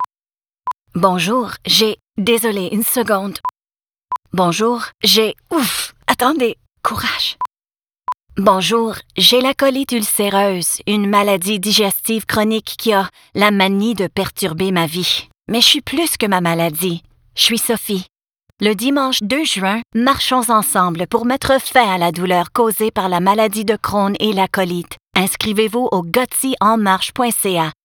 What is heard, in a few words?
Radio PSA FR; Gutsy Walk; Crohns and Colitis